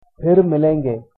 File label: goodbye hindi